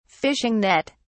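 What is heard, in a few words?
"fishing net", British English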